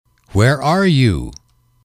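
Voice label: where are you, male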